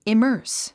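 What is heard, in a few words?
"immerse", English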